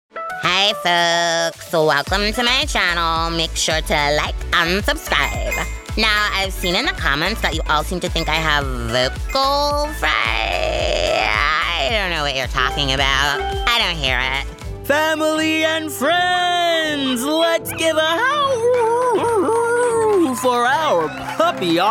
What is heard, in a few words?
Animation, voice actor; Demo